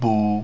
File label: "bu", pinyin sounds